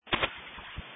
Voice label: Police Dispatch; sdr